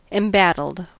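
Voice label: "embattled", US English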